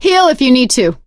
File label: suggest health, survivor voice; source engine; left 4 dead 2; producer